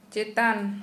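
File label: Santali female high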